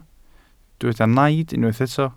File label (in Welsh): "naid" Welsh